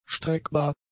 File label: "streckbar", German; adjectives